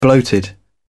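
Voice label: "bloated", British English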